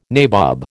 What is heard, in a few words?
"nabob", American